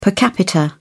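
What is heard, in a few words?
"per capita", British English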